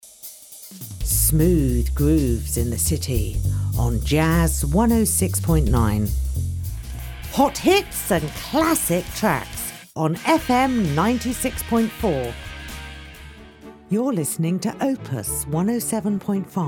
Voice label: Radio Imaging

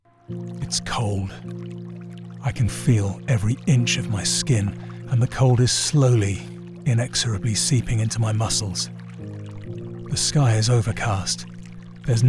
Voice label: NARRATIVE

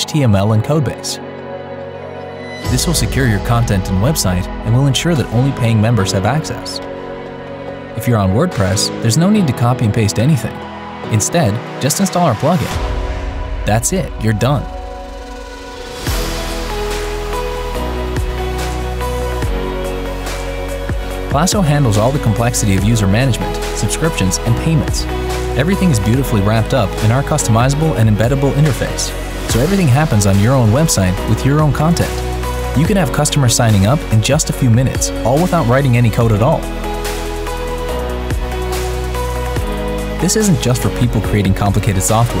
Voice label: Billing Promo; Plasso

